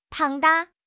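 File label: "庞大", Mandarin Chinese; HSK6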